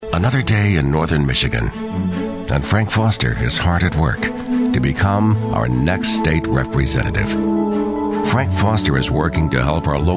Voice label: commercial, men